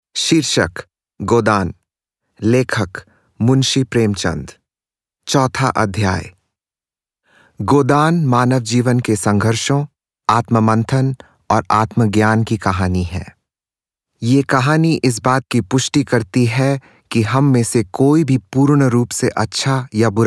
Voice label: Audiobook, Hindi